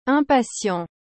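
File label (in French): "impatient", French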